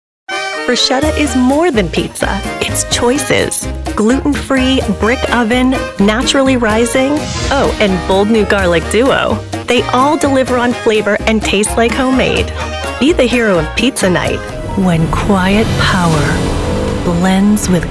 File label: Commercial